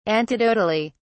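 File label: "antidotally", British English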